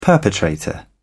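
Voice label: "perpetrator", British English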